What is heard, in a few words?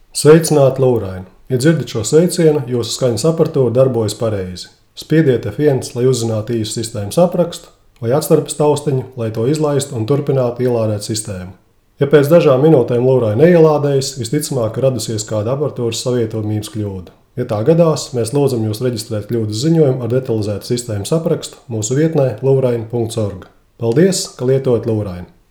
Latvian, greeting